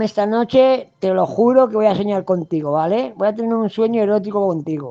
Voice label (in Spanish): erotico